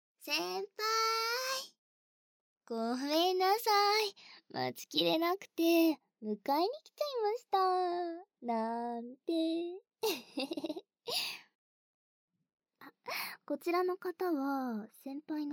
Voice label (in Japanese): BGM効果音なし; 『意地悪な放課後』 ～実はドSな後輩JKに囁き声で言いなりにさせられて嬲られるお話～; バイノーラル